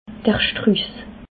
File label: "struss", alsace